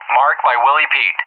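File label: "Marked by WP", English; JTAC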